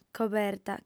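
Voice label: "coberta", female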